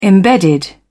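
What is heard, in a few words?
"embedded", British English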